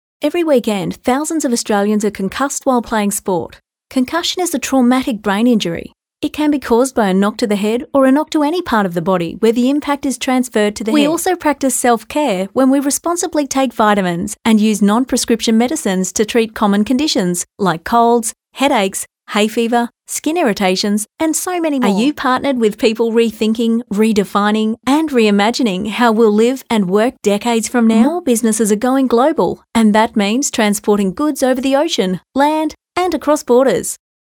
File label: Natural